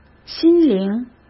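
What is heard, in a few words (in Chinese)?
"心灵", Mandarin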